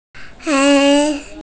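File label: "hei1", Mandarin Chinese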